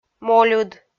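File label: "мольыд" Komi, female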